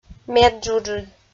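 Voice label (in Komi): "медджуджыд", Komi-Zyrian, female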